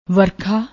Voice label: "warkha", Punjabi; ਵਰਖਾ